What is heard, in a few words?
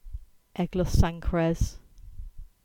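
"Sancreed", Cornish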